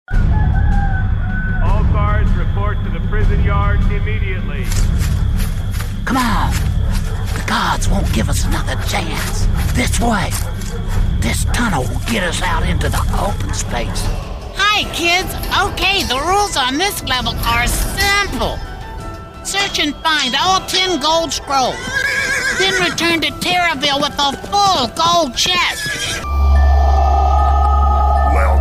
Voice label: Character

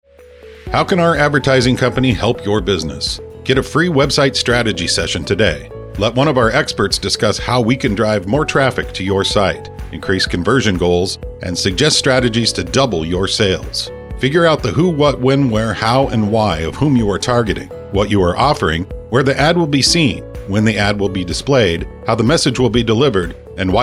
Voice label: Ad

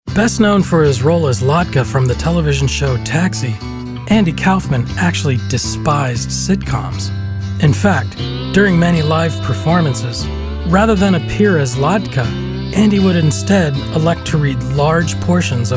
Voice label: Narration

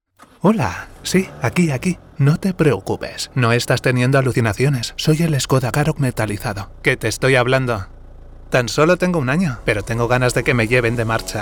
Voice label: VOICE AD SPANISH

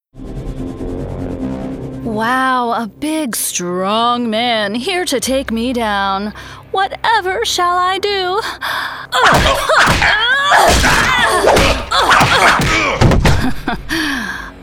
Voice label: Character Demo

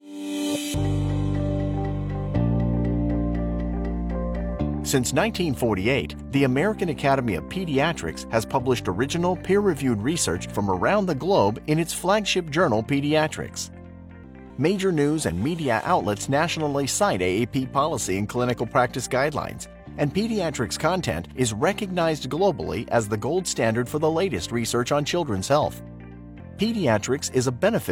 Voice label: Promo video; Pediatrics Journal

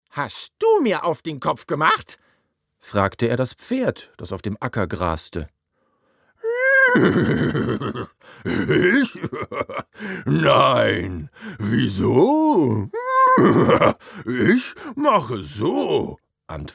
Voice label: audio book, DE; Maulwurf

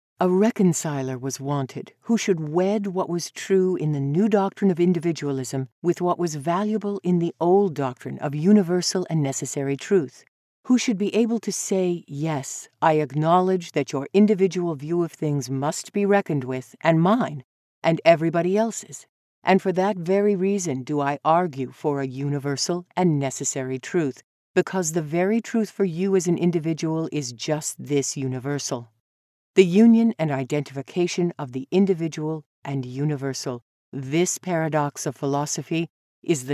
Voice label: Audio book

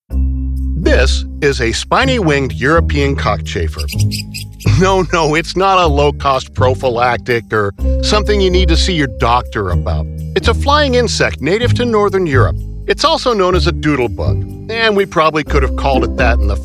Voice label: explainer

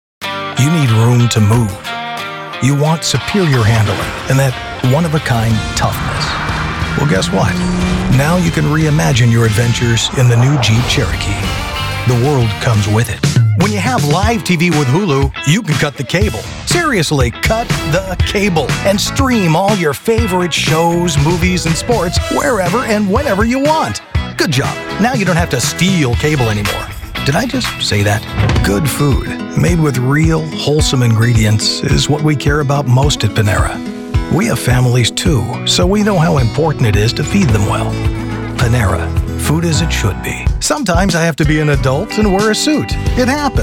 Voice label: Commercials

